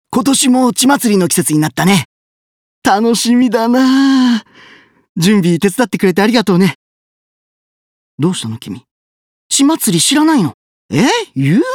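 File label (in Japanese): 祭り好きおじさん, ボイスサンプル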